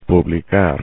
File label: "publicar", Spanish; infinitive